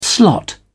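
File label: "slot", British English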